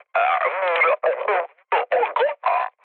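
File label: Turkish damaged pilot, mood low, speech; War Thunder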